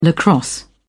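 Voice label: "lacrosse", British English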